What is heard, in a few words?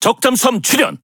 Speech Detection Enemy Sub, KO